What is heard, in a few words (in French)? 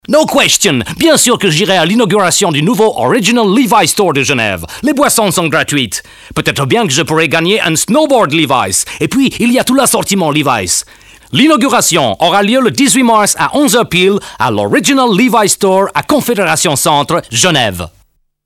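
Accent, voix-off; casting de voix-off; taurus-studio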